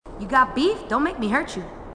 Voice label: female marine; h2dialogue